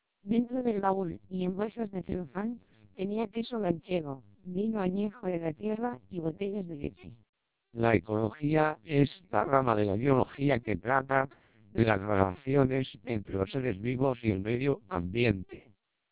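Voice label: TWELP 400 bps Vocoder, Spanish speech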